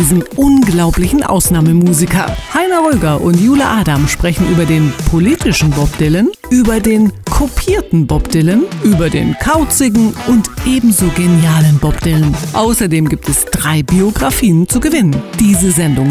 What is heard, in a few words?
energy music show promo; juni kommender montag